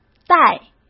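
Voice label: "待" Mandarin Chinese; chinese flashcards; hsk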